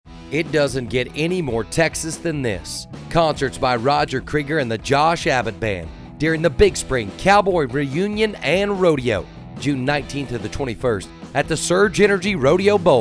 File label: Radio Ad; Big Spring; TwisTed Rodeo